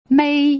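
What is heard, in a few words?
"mei", Mandarin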